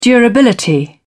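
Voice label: "durability", British English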